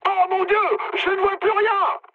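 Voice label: searchlite, mood high, French; War Thunder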